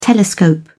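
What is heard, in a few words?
"telescope", British English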